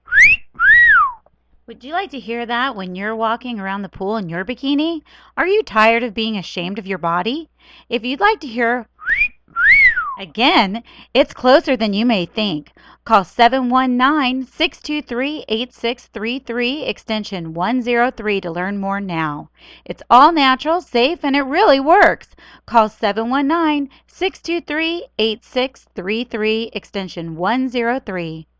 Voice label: whistle with american girl voice lose weight look great